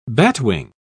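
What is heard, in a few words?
"batwing", British English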